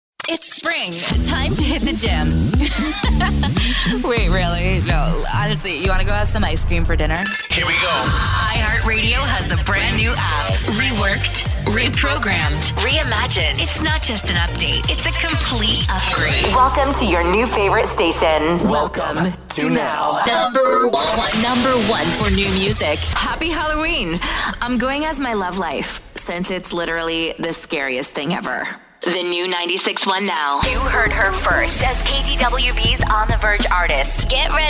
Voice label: IMAGING